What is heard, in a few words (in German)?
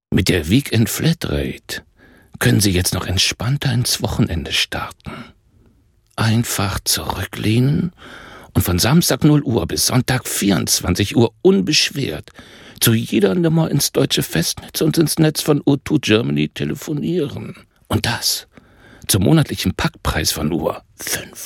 Werbung; O2